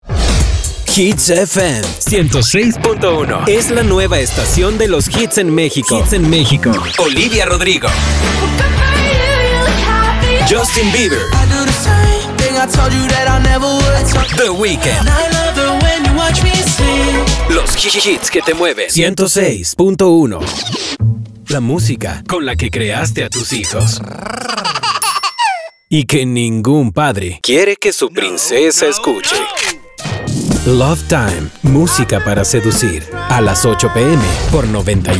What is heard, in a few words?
spanish radio imaging; (I) Neutral Spanish Speaker. Bilingual with a warm, versatile, and natural tone. From corporate to conversational.